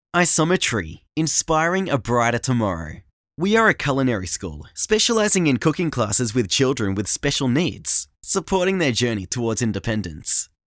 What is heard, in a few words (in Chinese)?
外籍英男-学校宣传